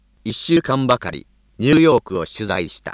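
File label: Japanese Male, Synth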